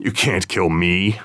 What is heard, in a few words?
last man standing, radio